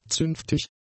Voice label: "zünftig", German; adjectives